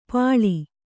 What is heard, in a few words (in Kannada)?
"ಪಾಳಿ", kannada